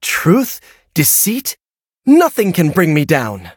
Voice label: levelup en; Cookie Run